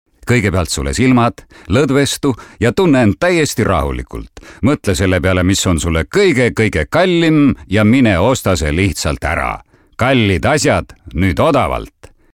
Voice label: est m, voice samples commercial